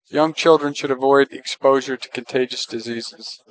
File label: speech est